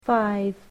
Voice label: "five", English

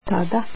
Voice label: Then, croatian

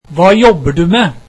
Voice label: Im a student, norwegian

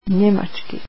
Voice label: German, croatian

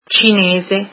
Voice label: "Chinese", italian

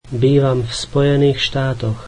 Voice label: I live in the US, slovak

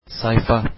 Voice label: page, Turkish

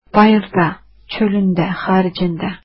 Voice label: "outside", azeri

